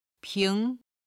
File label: "ping2", Chinese